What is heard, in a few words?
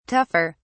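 "tougher", English, British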